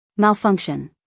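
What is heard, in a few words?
"malfunction", American English, female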